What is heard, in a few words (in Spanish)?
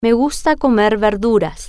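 Me Gusta Comer Verduras, spanish, female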